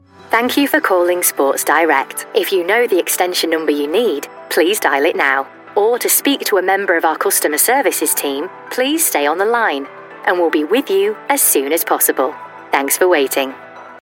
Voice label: telephone

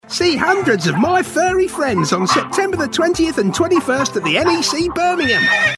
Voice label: cartoon dog

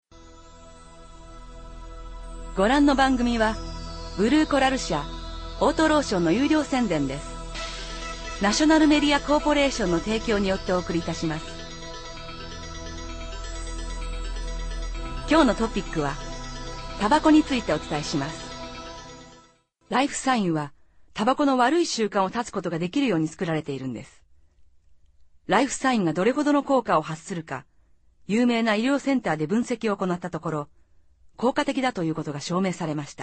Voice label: japanese female japanese narration characters

